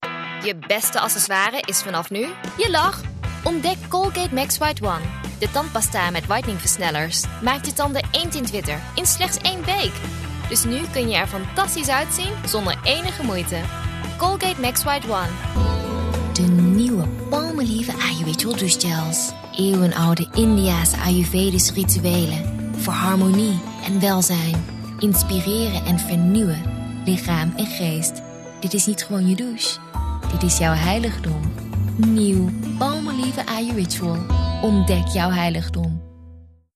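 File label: Dutch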